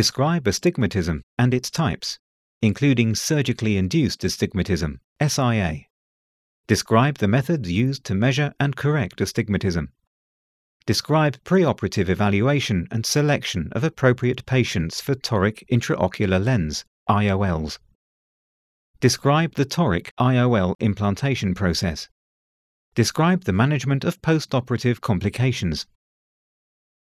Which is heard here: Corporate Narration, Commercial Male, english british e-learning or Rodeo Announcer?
english british e-learning